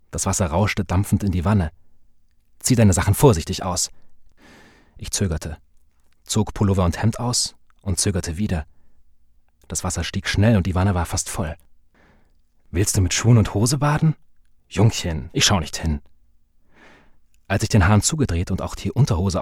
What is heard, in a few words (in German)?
hoerbuch